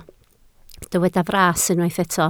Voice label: "fras", Welsh

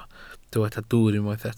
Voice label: "dwwr", Welsh

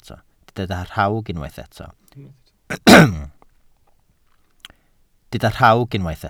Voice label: "rhawg", Welsh

